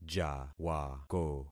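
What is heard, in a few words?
"jawako", concatenated syllables; Affixing experiment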